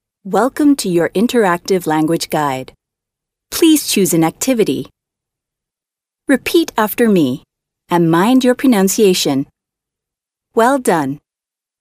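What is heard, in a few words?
American English female corporate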